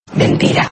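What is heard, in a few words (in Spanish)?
"mentira", Spanish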